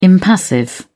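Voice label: "impassive", British English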